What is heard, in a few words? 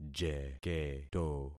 "jeketo", concat syllables; Affixing experiment